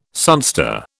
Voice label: "sunstar", British